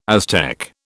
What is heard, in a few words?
"Aztec", British